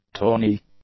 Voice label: "tawny", English; adjectives

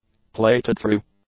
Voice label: "plated-through", english; adjectives

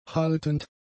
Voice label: "haltend", German; adjectives

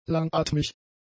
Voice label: "langatmig", German; adjectives

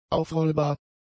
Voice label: "aufrollbar", German; adjectives

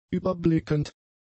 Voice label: "überblickend", German; adjectives

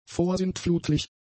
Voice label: "vorsintflutlich", German; adjectives

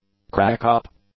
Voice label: "crackup", English; nouns

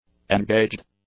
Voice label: "engaged", English; adjectives

